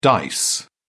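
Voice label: "dice", British English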